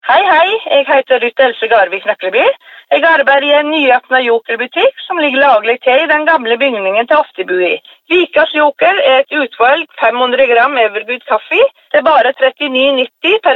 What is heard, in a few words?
radiospots